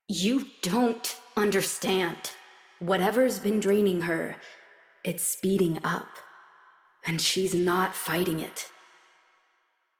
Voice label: Operator Voice; en; Warframe; Jade Shadows Quest

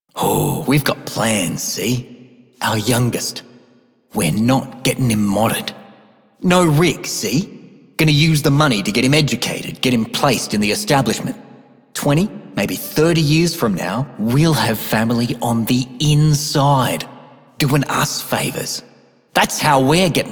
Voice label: male worker; en; Dialog; Warframe; Solaris Venus

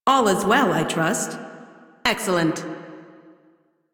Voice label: Crew Greet Female en; Railjack Crew; Cephalon Suda

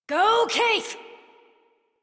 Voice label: Horse Sprint Adult; Warframe; Duviri

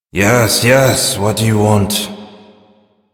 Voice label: Sweeper; Warframe; Duviri; Duviri Ambient

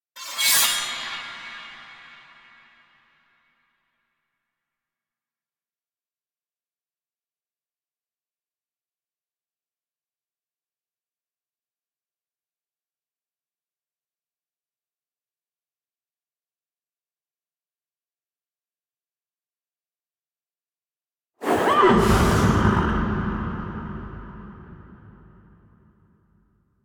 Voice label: Grandmother Tale Story; Warframe; Naberus; Dagath

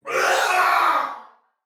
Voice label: Death Soldier Male, Battle; Dialog; Barks; Sinecure

